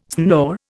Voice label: "snore", Indian